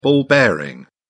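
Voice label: "ball bearing", British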